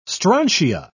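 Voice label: "strontia", British English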